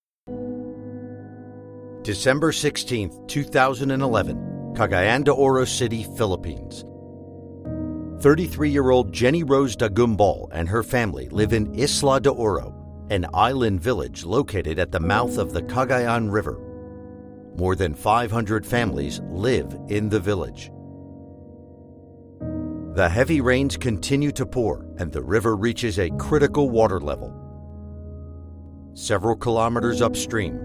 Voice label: Climate Change Documentary